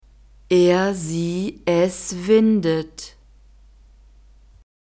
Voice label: "er sie es windet", German; verbs present tense irregular